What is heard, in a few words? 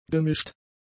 "gemischt", German; adjectives